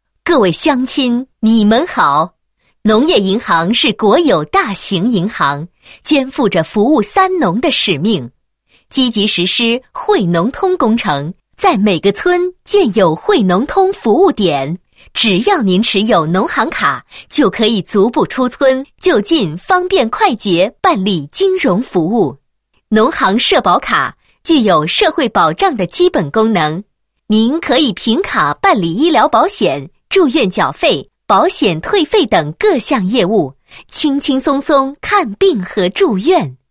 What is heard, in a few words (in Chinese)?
女 产品简介 宣传简介; 农行社保卡